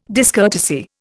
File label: "discourtesy", Indian